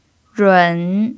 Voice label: "run3", chinese pinyin

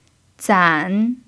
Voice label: "zan3", Chinese pinyin

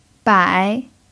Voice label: "bai3", pinyin

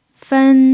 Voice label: "fen1", chinese pinyin

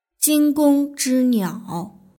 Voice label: "jinggongzhiniao", Chinese

